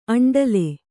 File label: "ಅಂಡಲಿ", Kannada